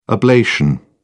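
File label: "ablation", British English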